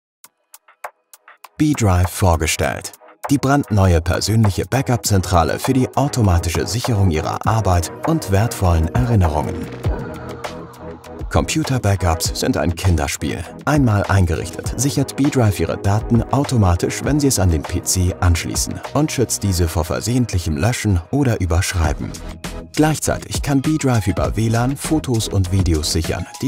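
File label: German, male, Narration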